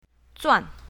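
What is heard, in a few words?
"zuan4", Chinese pinyin, south, female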